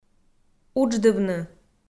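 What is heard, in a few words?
"удждывны", Komi, female; kpv audio dict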